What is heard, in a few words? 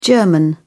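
"german", British English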